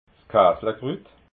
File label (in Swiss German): "kaaslakrut", alsace